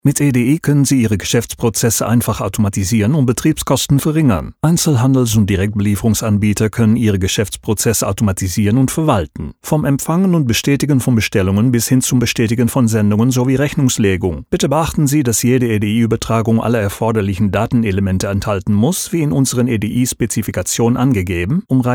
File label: German e-learning dubbing up-tempo